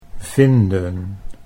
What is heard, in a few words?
"vinden", Dutch; 'to find'